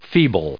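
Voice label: "feeble", US English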